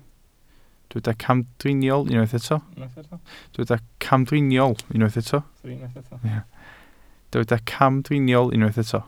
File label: "camdriniol", Welsh